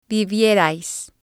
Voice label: "vevierais", Spanish